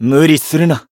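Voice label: Voice heal, Japanese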